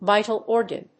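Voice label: "vital organ", English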